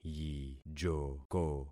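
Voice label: "yijoko", concatenated syllables; Affixing experiment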